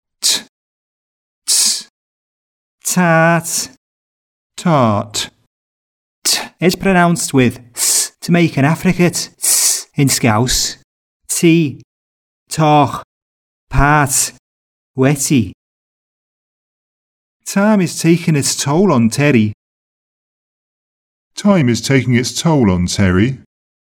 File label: Affricate ts